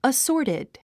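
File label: "assorted", English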